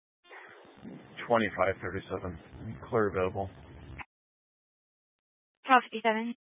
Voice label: Sheriff Dispatch, scanner; Mesa County Sheriff Dispatch

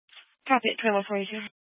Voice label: Fire Dispatch, scanner

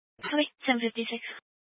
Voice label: Police Department Dispatch; Grand Junction

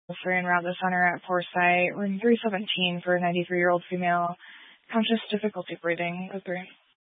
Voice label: Fire Dispatch, scanner

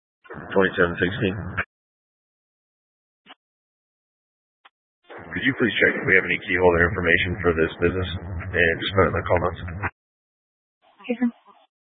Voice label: Mesa County Sheriff Dispatch; scanner

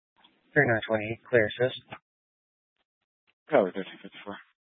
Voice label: Police 1 Dispatch

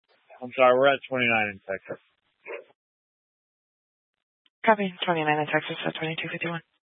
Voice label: Police Dispatch; Police 1 Dispatch

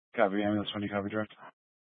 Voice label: Fire Department Dispatch, scanner

